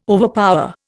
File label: "overpower", Indian